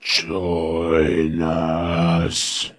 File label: "join usss", zombie speech